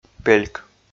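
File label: "пельк", Komi, male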